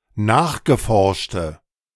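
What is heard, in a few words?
"nachgeforschte", German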